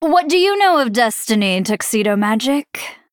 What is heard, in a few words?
Female Night Elf Bartender Greetings